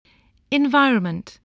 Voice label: "environment", voice, english